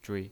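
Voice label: "zhui" Mandarin Chinese pinyin voice, neutral tone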